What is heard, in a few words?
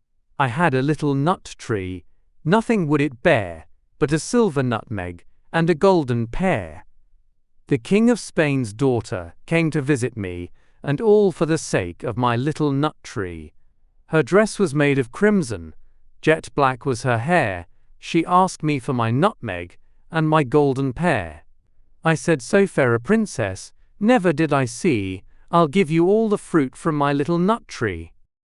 male brit; I Had a Little Nut Tree